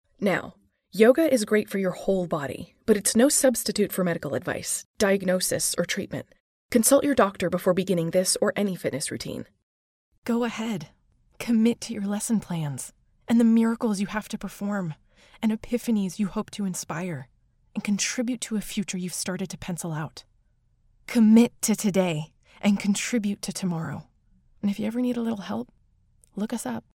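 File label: English American, female, voice over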